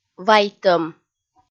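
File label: "вайтӧм", Komi, female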